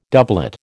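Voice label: "doublet", American English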